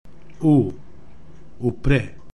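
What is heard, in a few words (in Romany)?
"Upre", Romani; Romska-alfabetet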